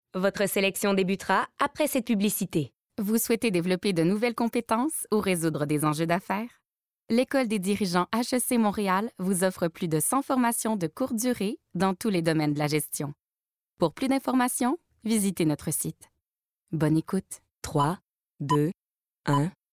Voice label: fr qc naturel annonceur amical medium adulte; hec

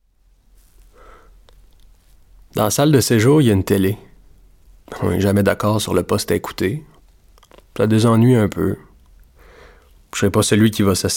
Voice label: audio guide temoignage prisonnier fr qc joual personnage serieux grave; immertif

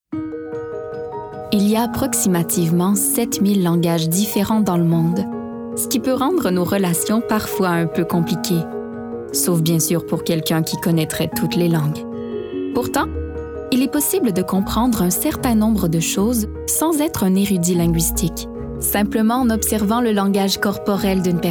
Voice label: narration

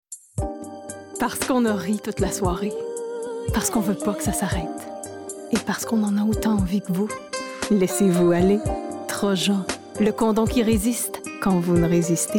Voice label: personnage serieux fr qc naturel douce rassurante sensuelle charmante; trojan; adulte

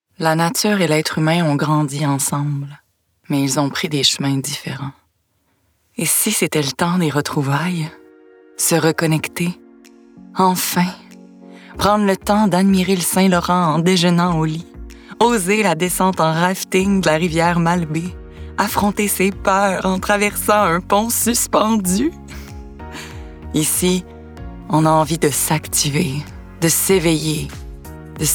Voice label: annonceur fr qc naturel douce rassurante sensuelle; charlevoix; charmante; grave

